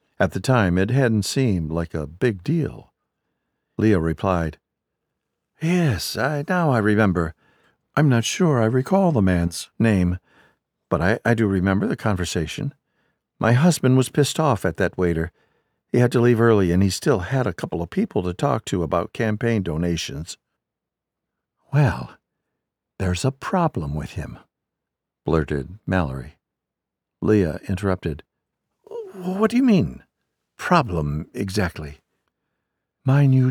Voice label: Dialogue Female Female